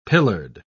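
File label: "pillared", British English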